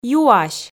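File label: "юась", Komi, female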